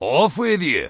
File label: Dwarf Male Standard NPC Farewell